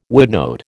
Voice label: "woodnote", American